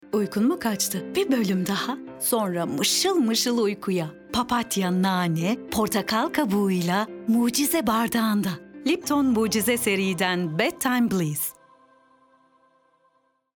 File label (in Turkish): sicak enerjik esprili; tanitim